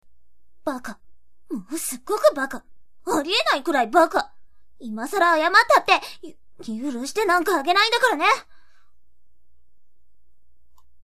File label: tundere, voice